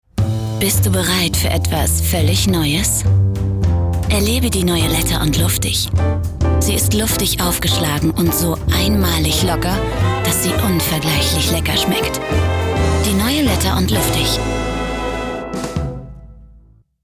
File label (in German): Verführerisch; Fremdtake